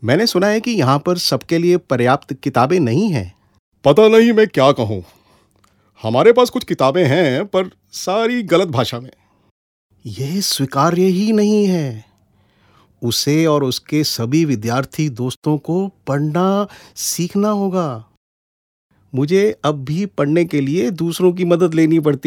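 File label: E-LEARNING MIMICKERY MULTI CHARACTERS HINDI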